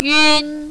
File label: "yun1", mandarin, female